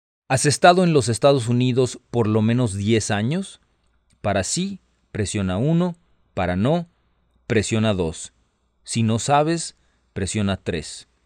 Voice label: Spanish, hotline